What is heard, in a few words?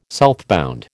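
"southbound", American English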